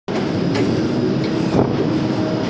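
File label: "zi3shu3", Mandarin Chinese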